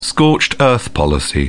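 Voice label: "scorched-earth policy", British English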